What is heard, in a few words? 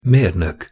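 "mernok", Hungarian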